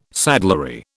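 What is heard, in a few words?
"saddlery", British English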